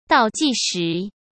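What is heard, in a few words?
"倒计时", Mandarin Chinese; chinese flashcards; hsk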